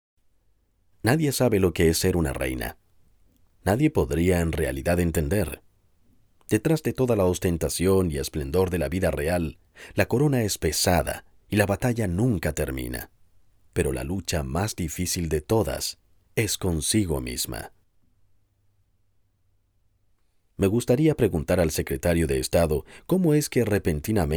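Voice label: latam male narration; voice demos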